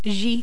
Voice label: "j", french